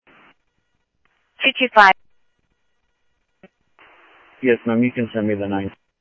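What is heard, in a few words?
Sheriff West County, scanner audio trunk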